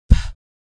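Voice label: sound "p", American English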